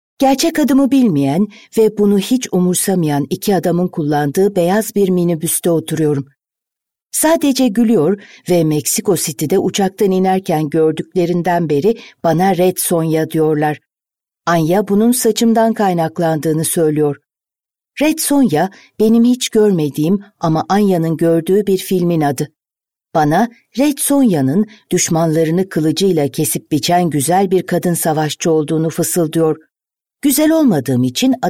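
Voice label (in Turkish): kitap, seslendirme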